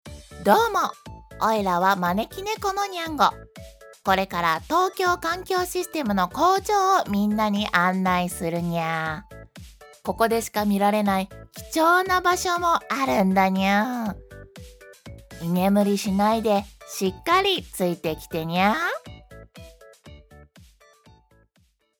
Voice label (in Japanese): ナレーション・キャラクター